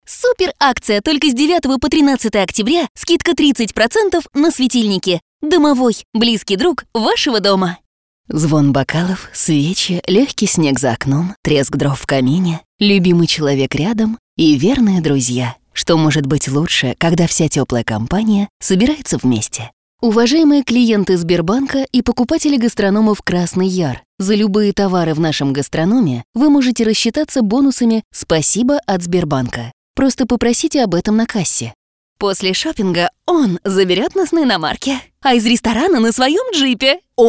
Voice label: Ru